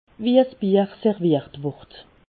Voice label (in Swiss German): "serviert", alsace